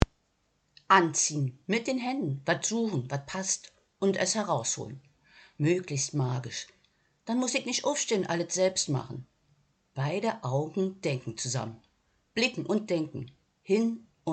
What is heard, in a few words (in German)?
Berlinerisch